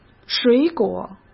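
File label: "水果", Mandarin Chinese; chinese-flashcards; hsk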